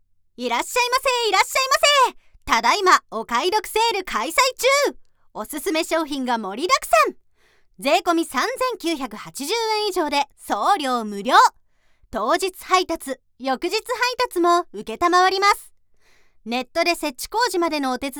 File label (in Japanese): セールアナウンス